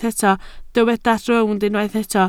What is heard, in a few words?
"rownd", Welsh